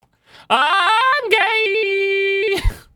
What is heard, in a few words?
auto tuned im gay